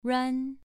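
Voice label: "ruan" Mandarin Chinese, second tone, pronunciation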